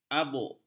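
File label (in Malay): "abuk", Malay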